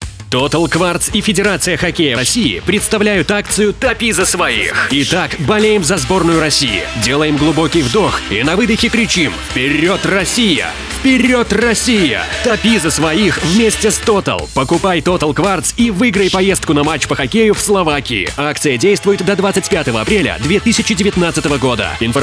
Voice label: Radio